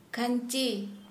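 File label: Santali female basket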